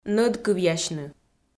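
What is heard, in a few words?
"нӧдкывъясьны", Komi, female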